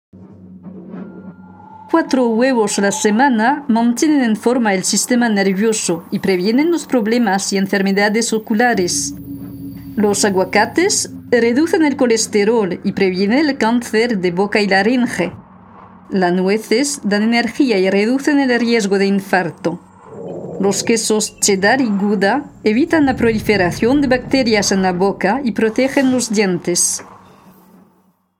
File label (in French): doc langue espagnol